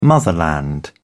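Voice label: "motherland", British English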